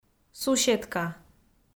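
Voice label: "суседка", Komi-Zyrian, female; audio dict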